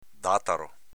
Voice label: "dataro", dialetto veneto; s.m. dattero